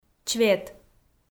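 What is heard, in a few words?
"чвет", Komi, female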